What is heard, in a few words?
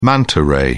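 "manta ray", English, British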